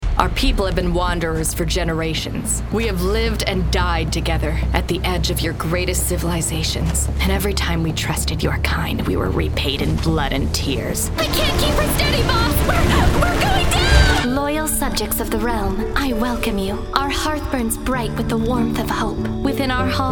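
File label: American English, Characters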